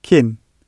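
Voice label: "kin" British English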